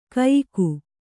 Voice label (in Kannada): "ಕಯಿಕು", Kannada